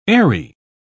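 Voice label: "aery", English, uk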